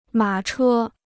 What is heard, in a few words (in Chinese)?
"马车", Chinese